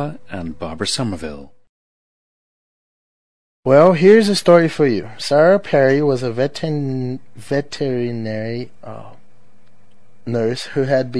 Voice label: brazil, dialects